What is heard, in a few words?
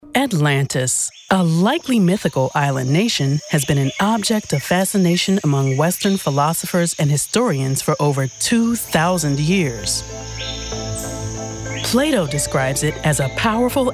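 narration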